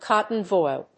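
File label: "cotton voile", English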